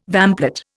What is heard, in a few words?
"vamplate", Indian